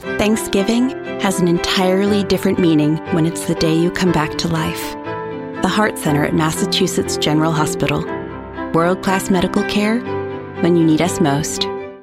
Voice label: Spot; Mass General